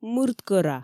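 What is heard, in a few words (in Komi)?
"мырд кӧра", Komi, female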